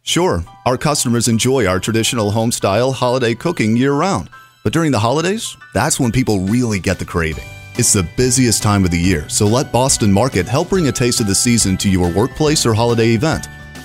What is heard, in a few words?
Narration, Mature, Announcer, Guy-next-door Male Voice